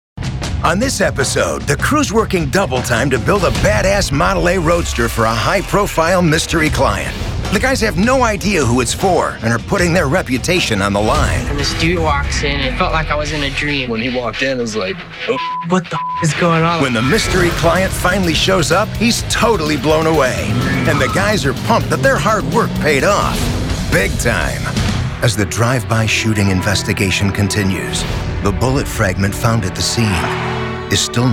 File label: TV Narration